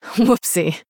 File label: Female Human Oops